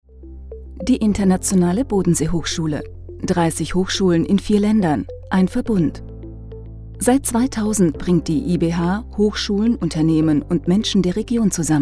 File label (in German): Neutral